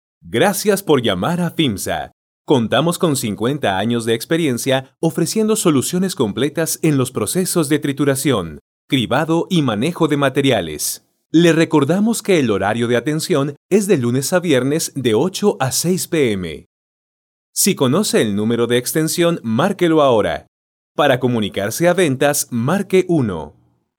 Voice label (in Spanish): AUDIOS DE CONMUTADOR; FIMSA